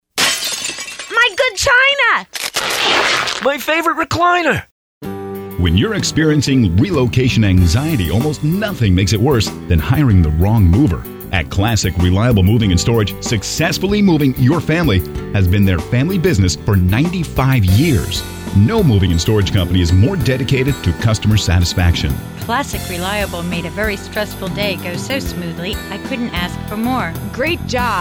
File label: RADIO COMMERCIAL; Classic Moving & Storage